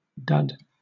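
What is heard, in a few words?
"dud", British English; pronunciation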